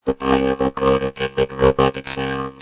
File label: robot, vocoder